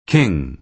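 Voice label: "king", American English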